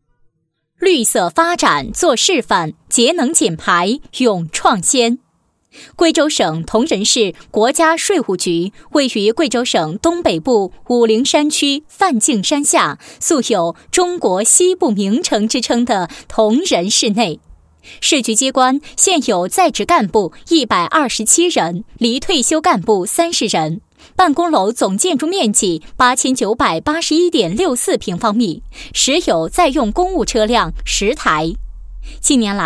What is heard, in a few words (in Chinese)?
女 政府 国家税务局